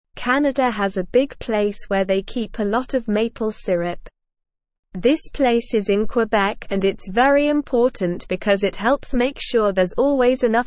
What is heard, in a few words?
English Beginner SLOW Reading; English Newsroom; Canadas Maple Syrup is Running Low